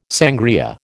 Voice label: "sangria", American

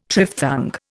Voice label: "triphthong", Indian

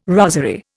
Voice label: "rosery", Indian

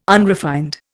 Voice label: "unrefined", Indian

